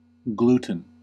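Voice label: "gluten", US English; pronunciations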